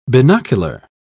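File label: "binocular", English, uk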